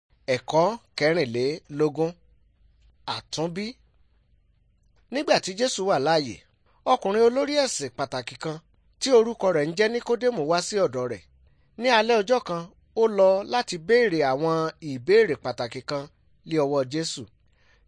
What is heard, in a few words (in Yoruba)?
good news yoruba